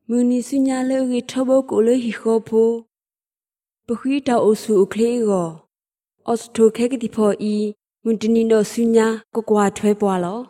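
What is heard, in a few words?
Burmese Sgaw Karen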